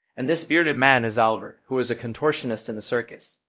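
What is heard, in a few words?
Voice Acting Spanish, male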